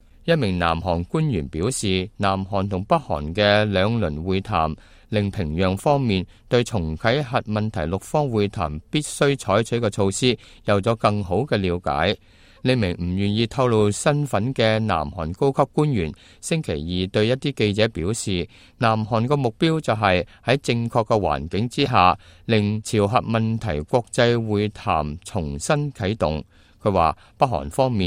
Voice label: cantonese, news